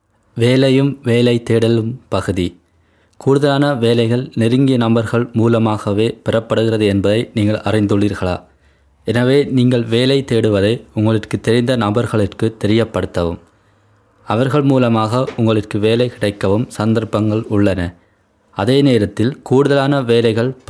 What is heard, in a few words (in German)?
tamil